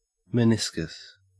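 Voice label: "meniscus", Australian English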